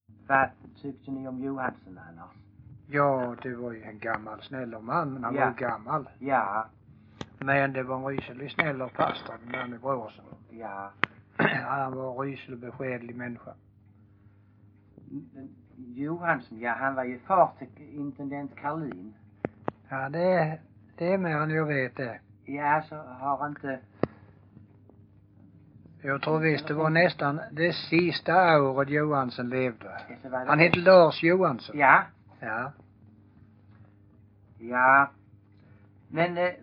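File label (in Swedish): Everöd Huaröd Skåne, dialekter; Folkminnen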